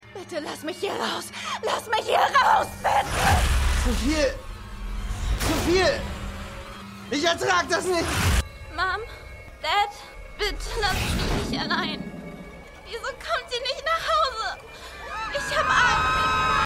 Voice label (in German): Frau Mann Jugendliche; The Flash